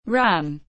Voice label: "Ran", English